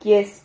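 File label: "quies", Spanish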